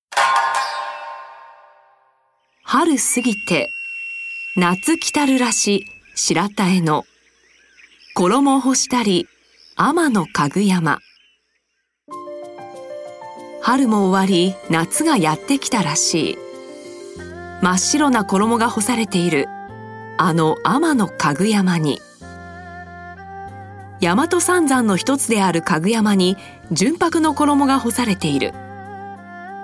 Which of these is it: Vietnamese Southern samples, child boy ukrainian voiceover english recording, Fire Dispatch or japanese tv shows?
japanese tv shows